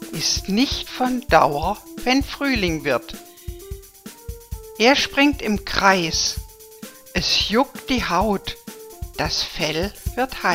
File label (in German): bison rezitation; gedichte; tiere